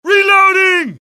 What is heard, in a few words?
reload, US; dialogue; mohaa